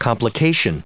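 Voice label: "complications", US English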